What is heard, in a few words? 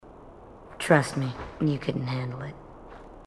marine tough